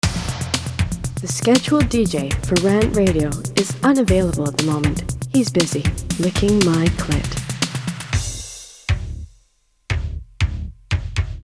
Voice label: Promo Dirty Promo Girl; RantRadio; Station Promos-In House